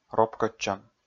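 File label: "ропкӧдчӧм", Komi, male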